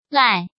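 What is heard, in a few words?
"Lài", Chinese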